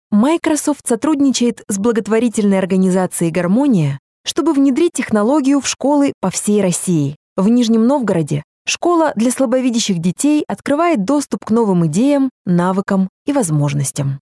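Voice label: Russian, female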